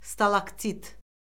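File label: "stalaktit", Azerbaijani; Orfoqrafiya Lüğəti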